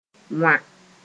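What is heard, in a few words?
"wã", Mooré; cut into pieces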